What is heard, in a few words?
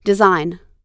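"design", American English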